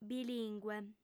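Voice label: "bilìngue", female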